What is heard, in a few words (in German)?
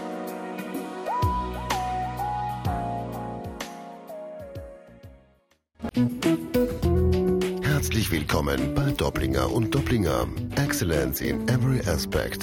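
Telefon Ansage Rechtsanwälte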